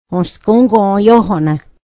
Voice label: i'll see you tomorrow, mohawk